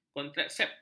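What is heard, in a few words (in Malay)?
"kontraseptif", Malay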